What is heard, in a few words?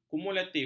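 "kumulatif", Malay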